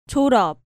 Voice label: "Joreop", Korean